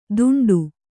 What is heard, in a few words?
"ದುಂಡು", kannada